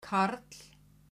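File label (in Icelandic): "karl", Icelandic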